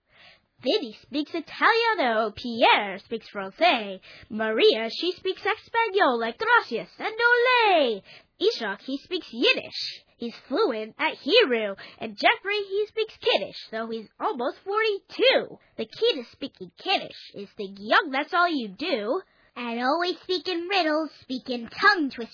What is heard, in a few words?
Speaking Kiddish